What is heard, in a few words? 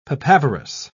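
"papaverous", British English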